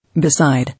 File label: "Beside", English